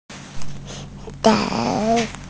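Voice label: "gei3", Mandarin Chinese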